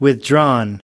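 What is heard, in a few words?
"withdrawn withdraw", US English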